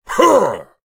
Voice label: Voices Vo Male Fight Attack; Mighty Party; Android

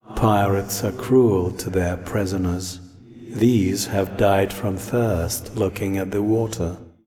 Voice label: Voice Monolog

